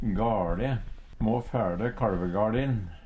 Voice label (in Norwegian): gale, Numedalsmål; Substantiv hankjønn; Må fæLe kaLvegaLin!